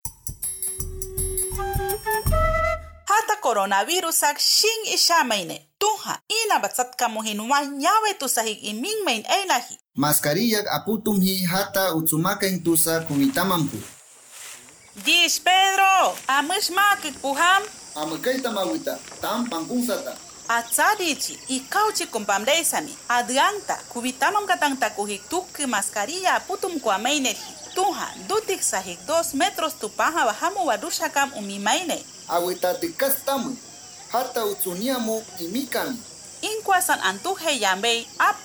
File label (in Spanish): awajun uso de mascarillas 2 voces, spot de radio; medidas de prevencion